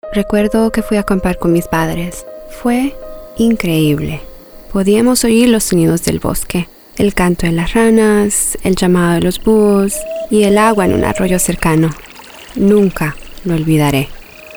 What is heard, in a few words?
Favorite Memory PSA Radio Spanish; WWF